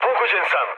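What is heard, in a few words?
Serbian aircraft damaged mood low, speech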